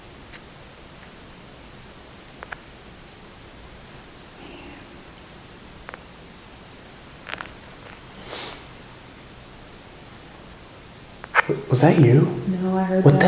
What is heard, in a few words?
Female Whisper